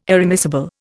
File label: "irremissible", Indian